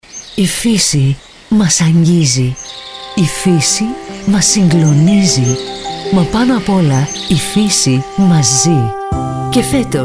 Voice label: Radio spot; Natura2000Day 2023